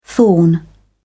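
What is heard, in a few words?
"thorn", British English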